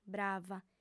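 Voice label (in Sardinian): "brava", female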